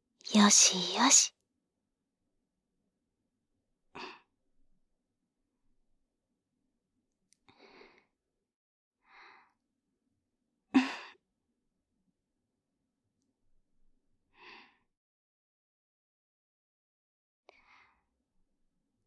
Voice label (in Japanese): 左_声のみ; ごほうびたいむ